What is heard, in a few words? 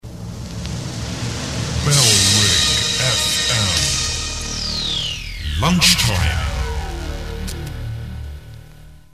male, bed, jingles; bfm lunchtime; bailrigg fm; bfm jingles ads RSL 1994